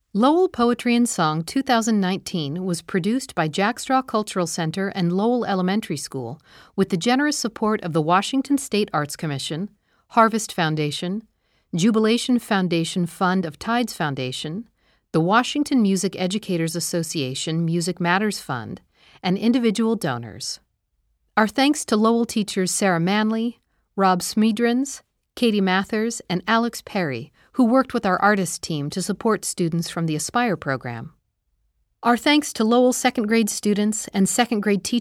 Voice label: Closing Narration